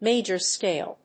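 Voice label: "major scale", English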